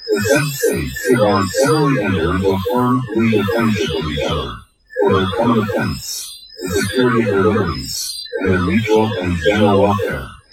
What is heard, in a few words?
male voice random group delay